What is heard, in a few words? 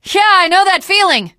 initial meeting, teen girl; Left 4 Dead 2; Source Engine; video games